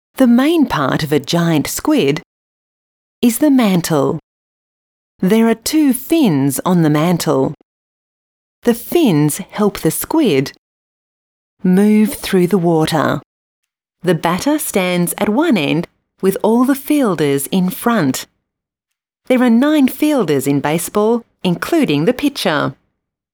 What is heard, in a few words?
childrens audio book voice over